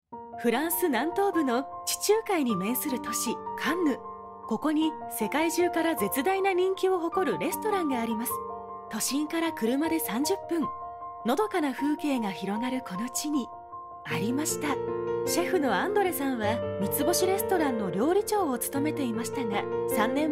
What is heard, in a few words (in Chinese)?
日语 女声